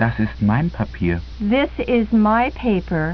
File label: german